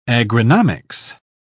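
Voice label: "agronomics", British English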